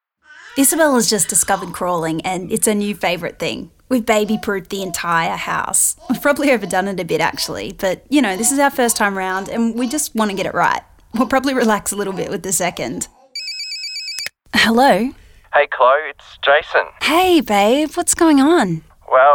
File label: Acting